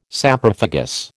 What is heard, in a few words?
"Saprophagous", American